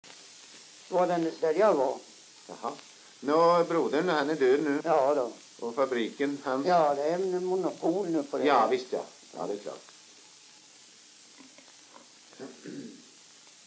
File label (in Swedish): dialekter; Folkminnen; Svenska sagor